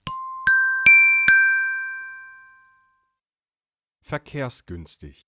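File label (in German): "verkehrsgünstig", German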